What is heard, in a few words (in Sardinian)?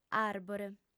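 "àrbore", Sardinian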